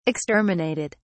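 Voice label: "exterminated", British English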